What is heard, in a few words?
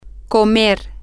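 to eat, Spanish, female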